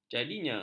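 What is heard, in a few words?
"jadinya", Malay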